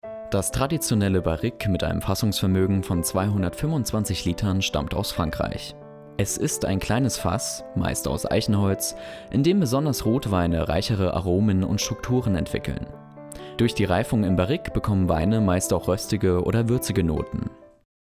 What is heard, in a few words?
documentary